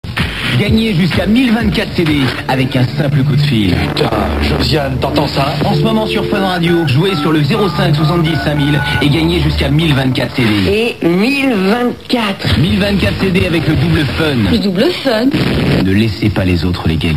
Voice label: promo